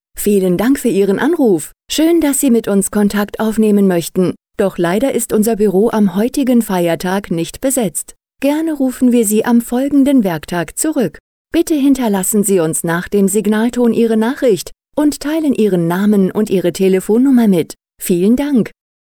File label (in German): ansage (w)